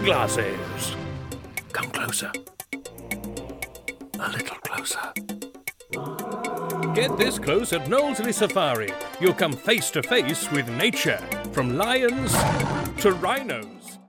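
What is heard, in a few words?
English UK male Commercial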